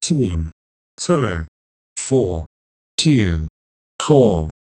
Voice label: 3 2 1, countdown

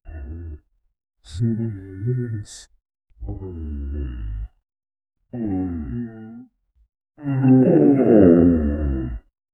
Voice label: aniaml crossing gibberish; syllables

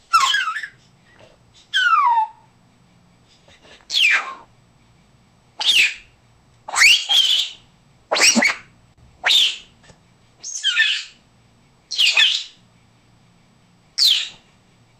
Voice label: a creature says the quick, chirps

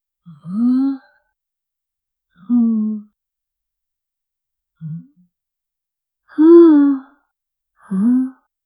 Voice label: normal female noticing so, reaction

